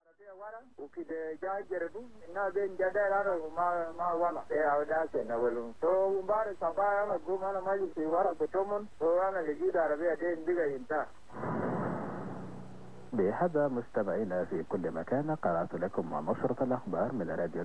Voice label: 15400 kHz, juba arabo; Radio Tamazuj; FT2000; dipolo